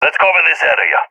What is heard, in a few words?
request hold, balkan; vo; balkan epic